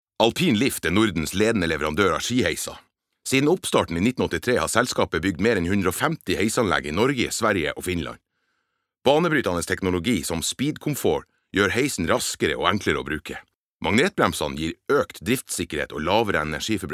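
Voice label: Norwegian corporate finnmarksdialekt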